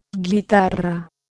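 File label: "guitarra", Spanish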